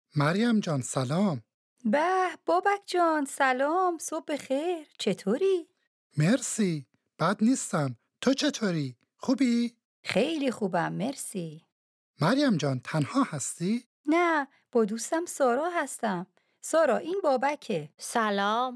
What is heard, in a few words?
Dialogue lesson Farsi